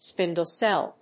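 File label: "spindle cell", English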